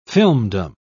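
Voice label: "filmdom", British English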